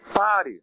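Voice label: stop, Brazilian Portuguese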